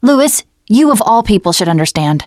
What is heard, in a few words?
teen girl